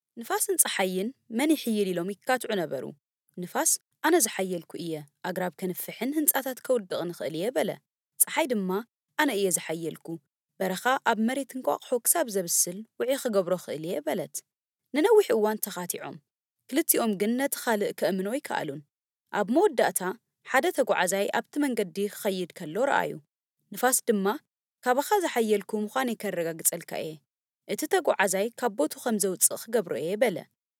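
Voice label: Tigrinya female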